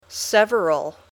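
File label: "several", English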